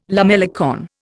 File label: "lamellicorn", Indian